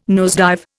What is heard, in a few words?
"nosedive", Indian